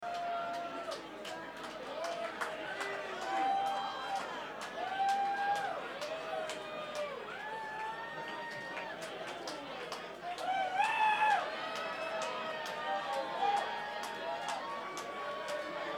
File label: Crowd Banter